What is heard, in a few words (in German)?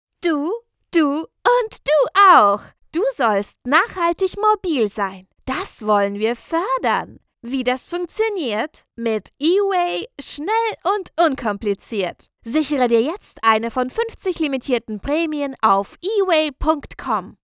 Besorgte Prinzessin